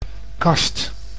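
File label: "kast", Dutch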